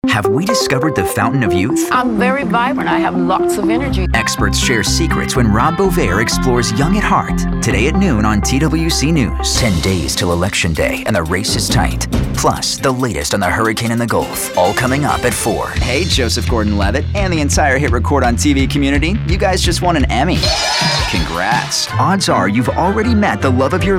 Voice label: tv affiliate men